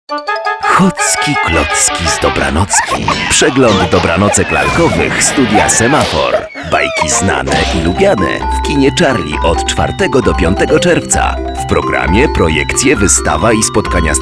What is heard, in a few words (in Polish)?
reklama; hockiklocki